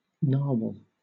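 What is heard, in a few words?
"narwhal", English, British accent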